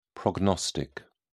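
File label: "prognostic", British English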